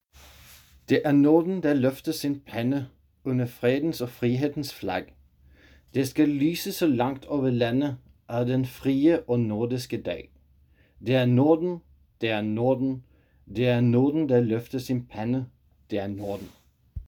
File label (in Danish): DANSK